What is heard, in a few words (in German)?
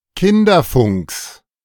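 "Kinderfunks", German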